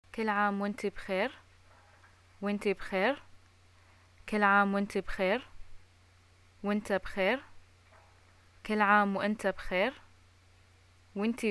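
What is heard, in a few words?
birthday, Bahraini arabic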